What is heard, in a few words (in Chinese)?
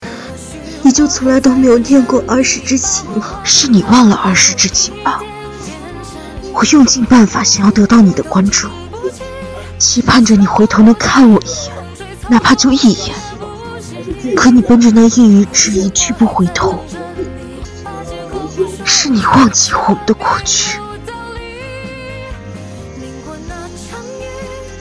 声音模仿; 国子监